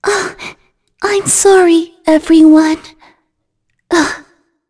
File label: Shea Vox Dead, voices heroes en; kingsraid-audio